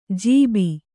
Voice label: "ಜೀಬಿ", Kannada

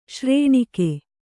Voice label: "ಶ್ರೇಣಿಕೆ", Kannada

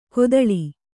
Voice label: "ಕೊತ್ತಳಿ", Kannada

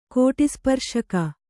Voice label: "ಕೋಟಿಸ್ಪರ್ಶಕ", Kannada

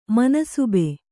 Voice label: "ಮನ್ಸಬ್", Kannada

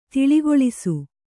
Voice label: "ತಿಳಿಗೊಳಿಸು", Kannada

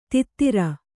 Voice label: "ತೈತ್ತಿರ", Kannada

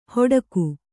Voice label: "ಹೊಡಕು", Kannada; hoḍaku; to moan from pain

